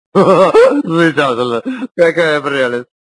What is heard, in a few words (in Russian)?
hahahaha... zamechatel no kakaja prelest